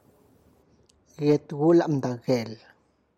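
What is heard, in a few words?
tamal wrapped in corn leaf, Tlacochahuaya Zapotec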